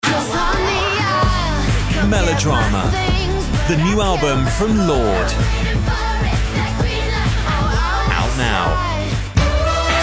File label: Music Commercials; reels